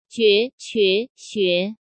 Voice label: jue que xue 觉 瘸 穴, Chinese Pinyin; J, Q, X Sound

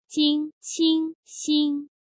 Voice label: jing qing xing 经 青 星, Chinese Pinyin

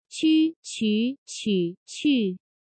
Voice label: qu 区 瞿 取 去, Chinese Pinyin

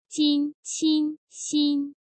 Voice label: jin qin xin 金 亲 新, Chinese Pinyin

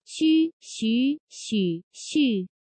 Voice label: xu 虚 徐 许 续, Chinese Pinyin; J, Q, X Sound

